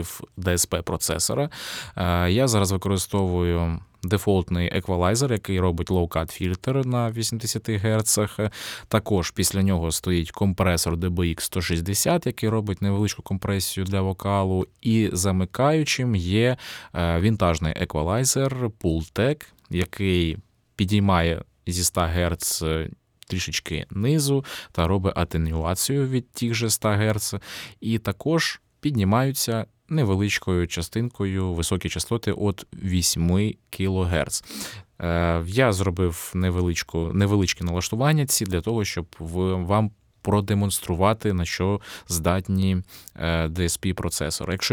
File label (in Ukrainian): antelope zen go test golosu dsp obrobka; Тест Голосу DSP Обробка